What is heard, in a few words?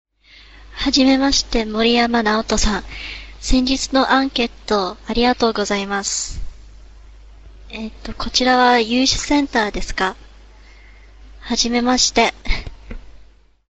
Japanese Conversation